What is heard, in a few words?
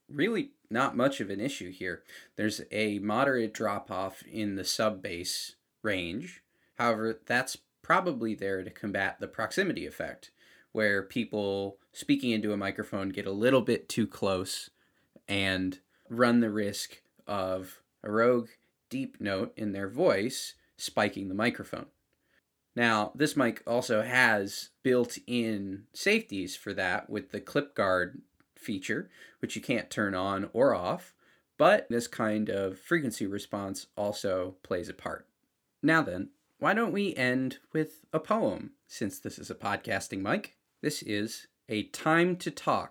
Elgato Wave 3 mic